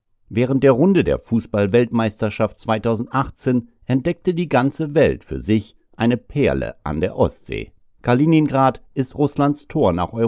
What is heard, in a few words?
GERMAN